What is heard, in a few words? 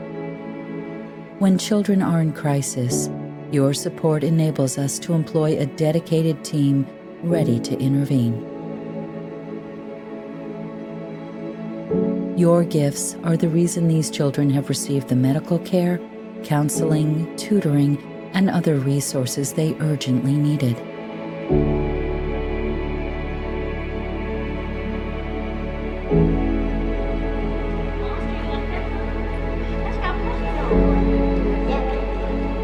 with Music